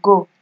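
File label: "go", Nigerian Pidgin, female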